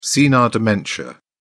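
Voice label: "senile dementia", British English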